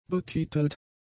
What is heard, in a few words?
"betitelt", German; adjectives